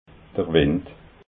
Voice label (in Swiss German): "wind", alsace